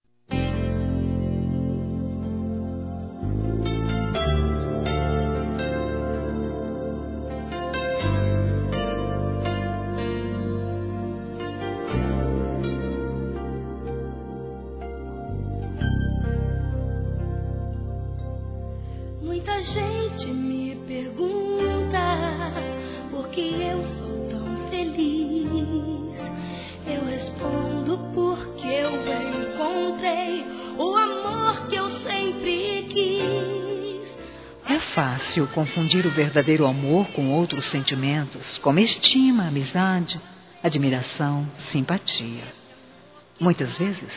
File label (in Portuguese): Aniversário Romântico Evangelico Feminino; Aniversários